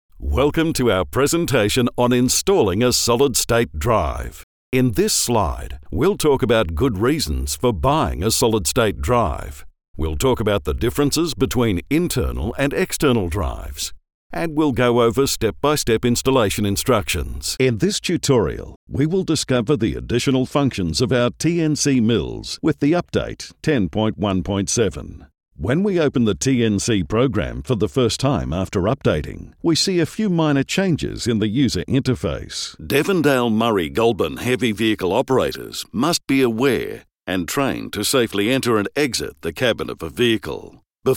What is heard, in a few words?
E-Learning